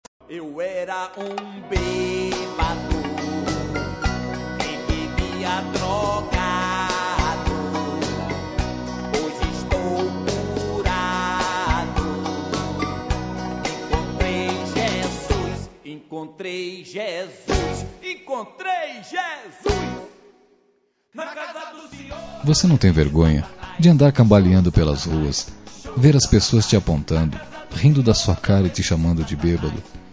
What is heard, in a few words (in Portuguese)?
Deixa de ser Bebado voz masculina; duBroncas